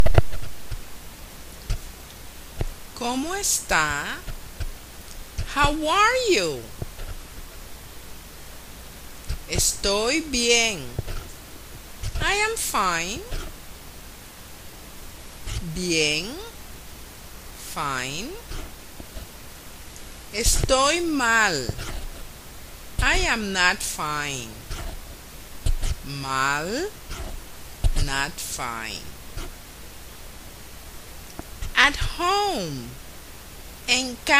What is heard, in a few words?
How are You Spanish Kids Only; Spanish Class